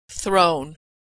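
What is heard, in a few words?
"thrown", American English; verb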